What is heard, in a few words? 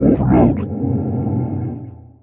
overload, vocals